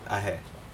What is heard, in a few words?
preparing soil for taro, Aneityum; ahe